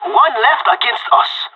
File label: one enemy left, pirate; Counter Strike Model Pack; CS:GO